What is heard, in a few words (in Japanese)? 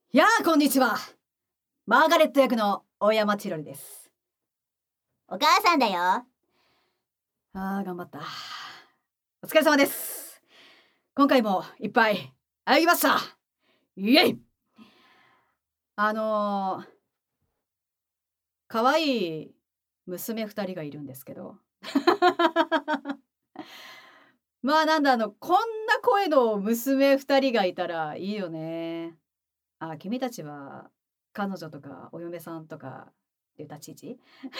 フリートーク